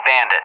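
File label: "bandit", English; Target; DCS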